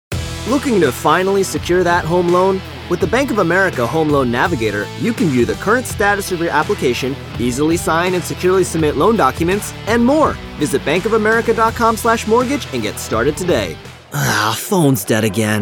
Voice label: Commercial